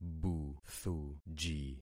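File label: "buthuji", concatenated syllables; Affixing experiment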